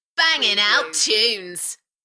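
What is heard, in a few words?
UK female, Enthusiastic, voice drop; Banging out tunes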